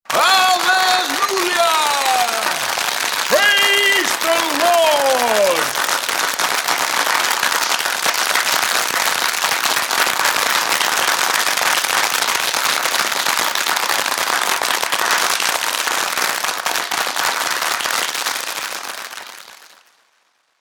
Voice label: Preacher, Sound of a preacher shouting ‘Alleluia’ ‘Praise The Lord’ with crowd applau; Sound of a preacher shouting ‘Alleluia’ ‘Praise The Lord’ with crowd applause.; Crowds; Voice; Preacher And Crowd